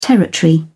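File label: "territory", English, British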